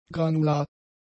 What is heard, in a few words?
"granular", German; adjectives